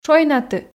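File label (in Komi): "шойнаты", Komi, female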